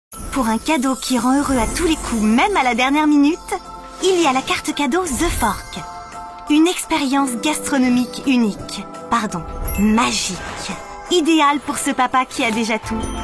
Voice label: ADVERTISING Christmas edition; voice-talent; The Fork